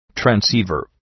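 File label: "transceiver", English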